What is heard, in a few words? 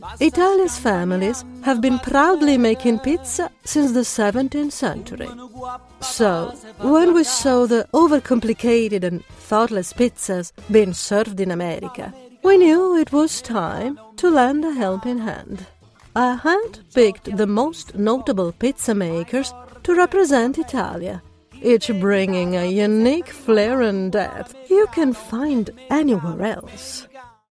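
Italian, accented English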